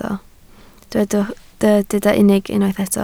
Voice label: "unig", Welsh